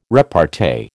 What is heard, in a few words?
"repartee", American